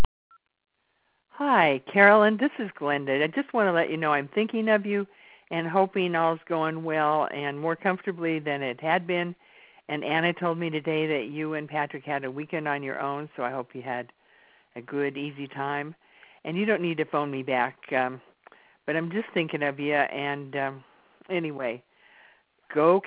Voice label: voice mail